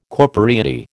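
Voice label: "corporeity", American English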